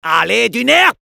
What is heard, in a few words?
go, fr; "Allez, du nerf!"; Medic